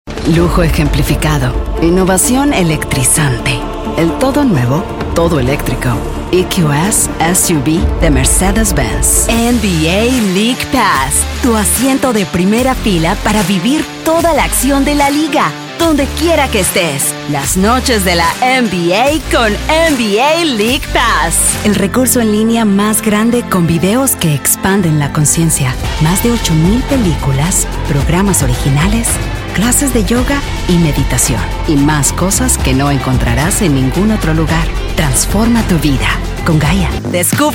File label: spanish commercial